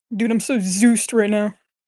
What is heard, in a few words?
voice message